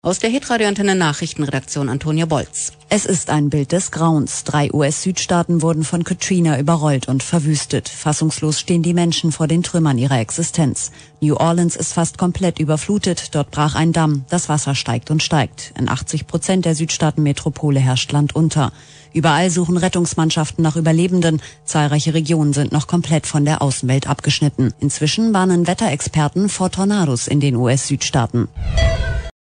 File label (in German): News Aufsager